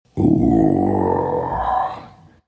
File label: happy; Sand King